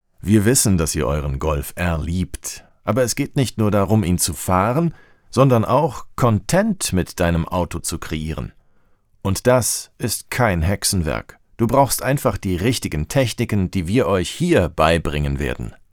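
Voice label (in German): German dubbing Regisseur warm freundlich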